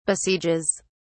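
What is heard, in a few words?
"besieges", British English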